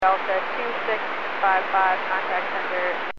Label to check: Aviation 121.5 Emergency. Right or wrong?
Right